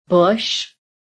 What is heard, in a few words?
"BUSH", american